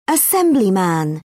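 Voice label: "assemblyman", British English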